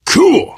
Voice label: safe spot ahead reaction, survivor voice biker

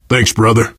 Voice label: thanks, player survivor voice biker

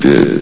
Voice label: "did", vox; Source Engine; bshift

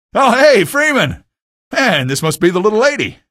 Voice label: greet, male; video games; npc; source engine; episodic; episode 1

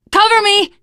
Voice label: cover me, teengirl; voice; source engine; left 4 dead 2; survivor

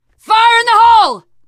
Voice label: grenade, teen girl voice; source engine; left 4 dead 2; survivor

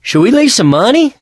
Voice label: ticket counter, mechanic; Left 4 Dead 2; Source Engine; video games

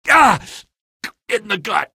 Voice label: hit in gut, male; Source Engine; video game; npc

